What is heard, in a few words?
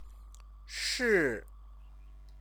"shi", Chinese; 是; to be; classificatory verb